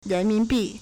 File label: "ren2 min2 bi4", Chinese